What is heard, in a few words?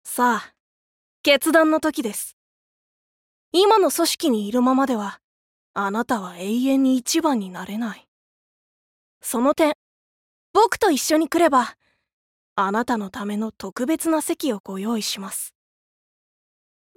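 voice sample, boy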